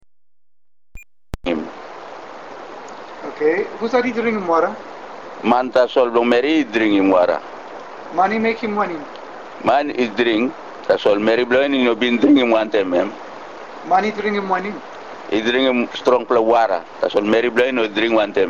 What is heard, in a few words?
Tok Pisin; TokPisinTexte